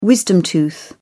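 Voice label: "wisdom tooth", English, British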